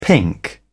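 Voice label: "pink", British English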